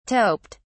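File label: "toped", British English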